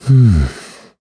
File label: think, kr; Siegfried; kings raid